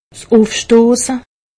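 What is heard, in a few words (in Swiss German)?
"ufstosse", Alsatian; medecine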